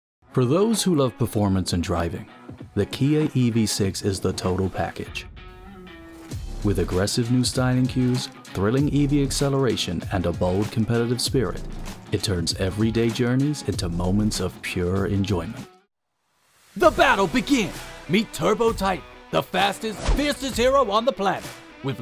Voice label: Commercial